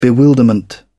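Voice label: "bewilderment", British English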